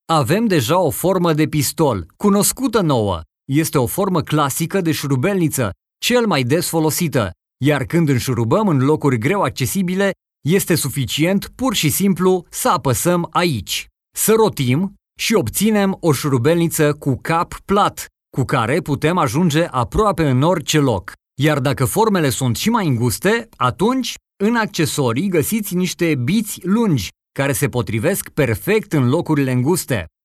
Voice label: ro elearning